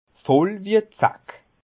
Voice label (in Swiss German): "zack", Bas Rhin; saoûl comme une tique